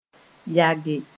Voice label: "yage", Moore